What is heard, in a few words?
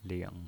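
"ling", pinyin, Mandarin Chinese, third tone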